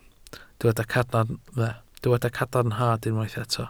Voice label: "cadarnhad", Welsh

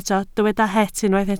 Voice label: "het" Welsh

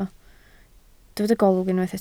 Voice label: "golwg", Welsh

